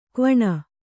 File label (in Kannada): "ಕ್ವಣ", kannada